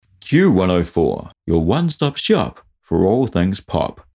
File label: radio imaging